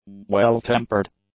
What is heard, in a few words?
"well-tempered", english; adjectives